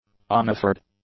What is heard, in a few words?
"unoffered", English; adjectives